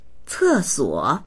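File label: "ce4suo3", Chinese; 厕所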